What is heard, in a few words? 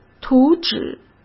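"图纸", Mandarin Chinese; chinese flashcards; hsk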